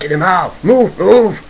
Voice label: babble move move; valve; gook